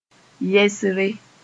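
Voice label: "yɛsre", Mossi; stature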